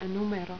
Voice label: "numero", Finnish